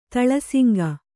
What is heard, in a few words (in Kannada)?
"ತಳಸುಂಕ", Kannada